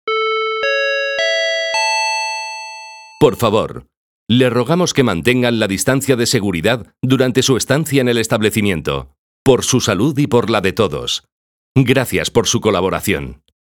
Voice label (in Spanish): distancia castellano